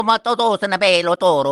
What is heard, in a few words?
gibberish